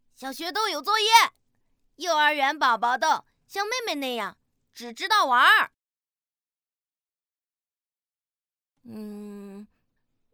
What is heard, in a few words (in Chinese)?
角色, 男童